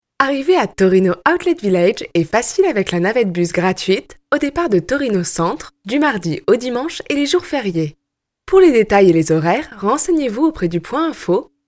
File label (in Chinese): 外籍法语女 干音